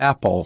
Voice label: apple, American